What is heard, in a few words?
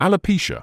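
"alopecia", British English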